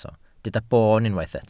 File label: "boon", Welsh